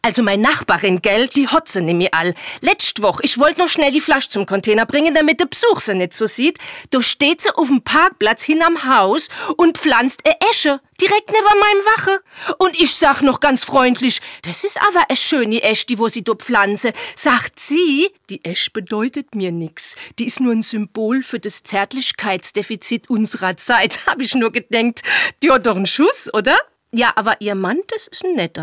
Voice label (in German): Pfaelzisch